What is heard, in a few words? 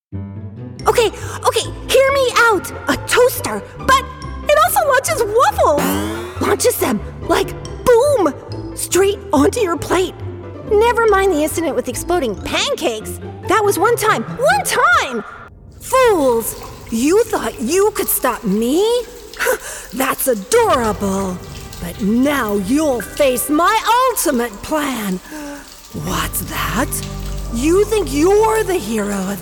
Character